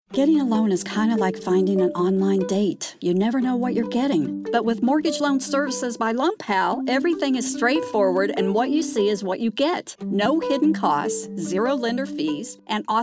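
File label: COMMERCIAL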